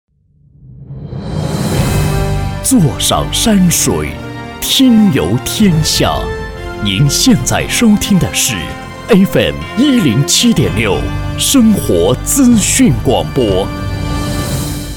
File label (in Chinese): 男 台呼 大气配音